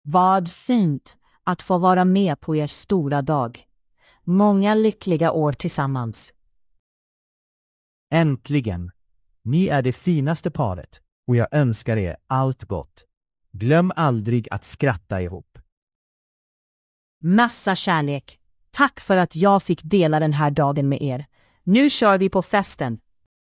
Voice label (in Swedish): ljudbok; selfogram